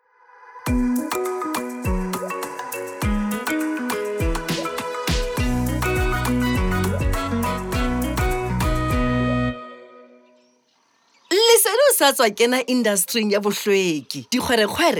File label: Service Providers Containment Sesotho; Sanitation; Sesotho Soundbites; DWS